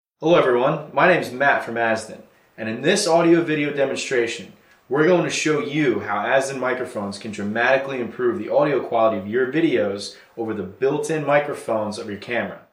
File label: Directional Stereo Video Microphone Vocal; SMX 10